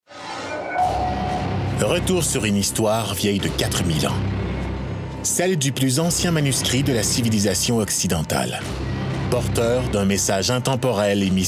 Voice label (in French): narration, hommes; livre des morts